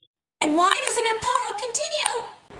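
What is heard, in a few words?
Voice Acting Spanish Female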